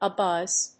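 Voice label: "a buzz", English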